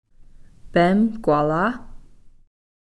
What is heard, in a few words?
"peem kwala", woods